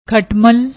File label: "khatmal", Punjabi; ਖਟਮਲ